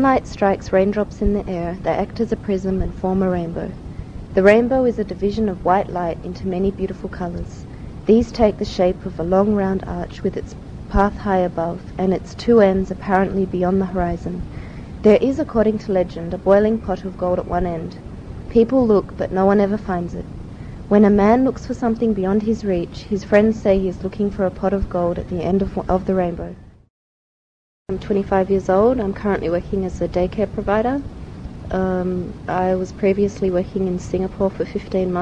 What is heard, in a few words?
australia